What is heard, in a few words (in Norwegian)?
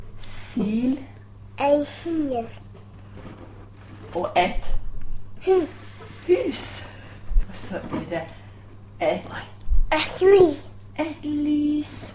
aktiv nasal frikativ